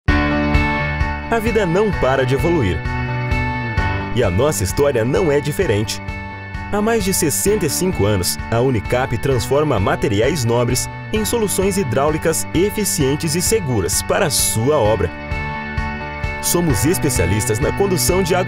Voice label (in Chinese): 葡萄牙语男 宣传片